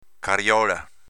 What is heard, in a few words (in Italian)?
"cariola", dialetto veneto